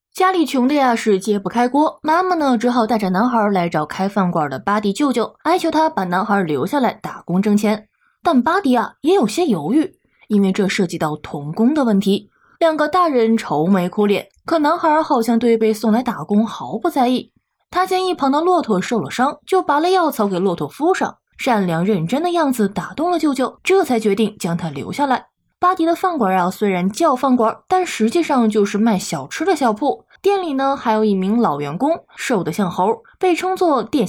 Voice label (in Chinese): 电影解说